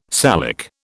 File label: "Salic", British